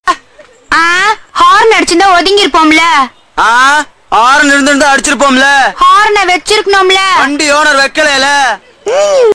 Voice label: tamil comedy